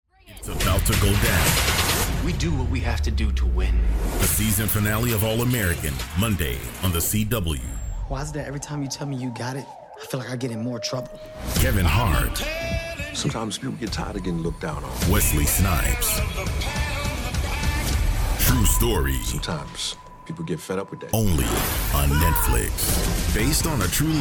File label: Promo